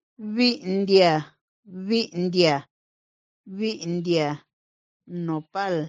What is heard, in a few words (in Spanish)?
Vi india nopal, mixteco; vocabulario palabras en mixteco